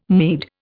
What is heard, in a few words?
"Mede", Indian